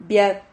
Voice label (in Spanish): "viad", Silabas